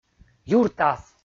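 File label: "юртас", Komi, male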